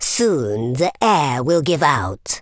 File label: "coward", Super Villain; speech